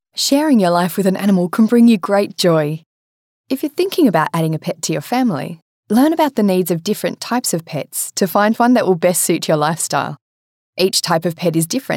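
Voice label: English Australian, female, narration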